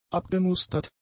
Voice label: "abgemustert", German; adjectives